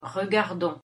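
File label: "regardons", French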